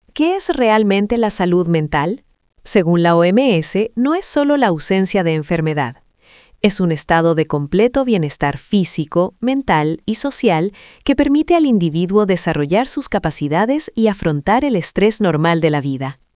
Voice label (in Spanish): locucion